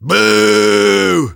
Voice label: Engineer jeers